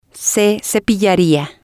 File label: "se cepillaria", spanish